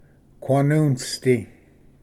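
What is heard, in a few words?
"quanunvsdi", Cherokee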